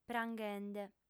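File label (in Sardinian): "pranghende", female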